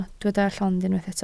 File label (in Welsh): "llond", Welsh